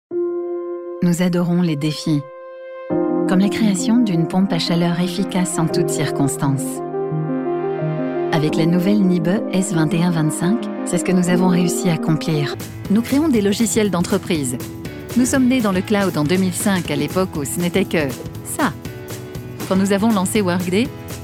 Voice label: Corporate BtoB Narration; Showreel